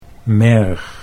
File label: "merg", Dutch